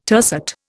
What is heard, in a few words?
"tercet", Indian